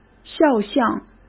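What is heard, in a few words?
"肖像", Mandarin Chinese; chinese flashcards; hsk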